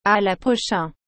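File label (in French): "À la prochain", French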